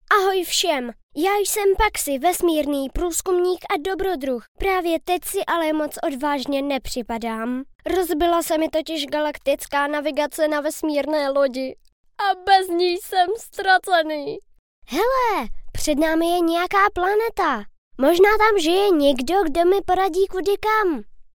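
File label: Czech child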